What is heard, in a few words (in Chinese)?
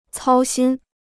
"操心", Chinese